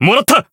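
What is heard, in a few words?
attack, Japanese; joker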